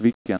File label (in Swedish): "vilken", Swedish